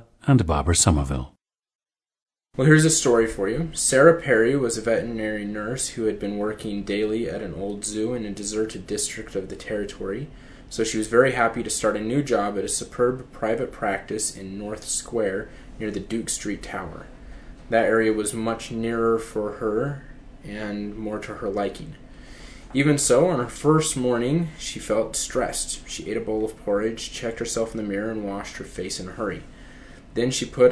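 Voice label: utah, International Dialects of English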